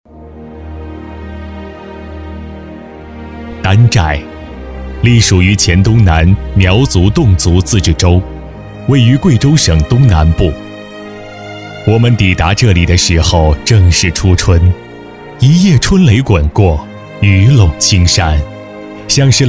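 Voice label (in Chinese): 国语 男声 专题片 浑厚、大气 大气浑厚磁性 沉稳; 专题片-指尖奇迹-大气、沉稳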